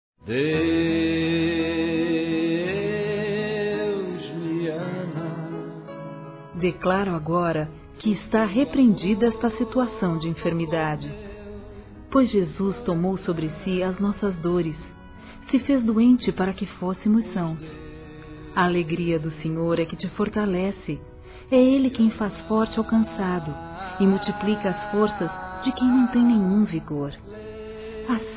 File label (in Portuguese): ENFEMIDADE, Gospel, fem; Telemensagens; Telemensagens 2017